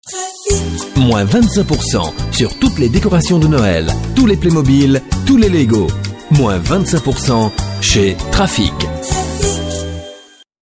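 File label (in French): Belgian French, male, commercial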